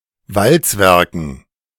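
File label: "Walzwerken", German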